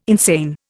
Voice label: "insane", Indian